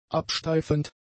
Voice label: "absteifend", german; adjectives